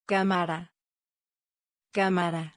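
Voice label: "Camara", Spanish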